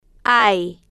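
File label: "hay", Spanish, slow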